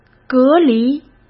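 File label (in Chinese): "隔离", Mandarin Chinese; hsk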